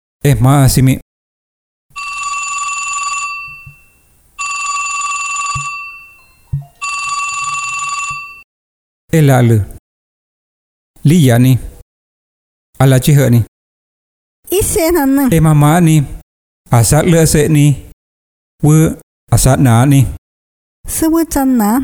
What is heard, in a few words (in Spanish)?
Lengua Chinateco; FI Uxpanapa